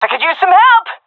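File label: request help, female; vo; Counter Strike Model Pack; CS:GO; seal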